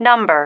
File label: "number", female